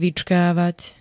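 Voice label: "vyčkávať", Slovak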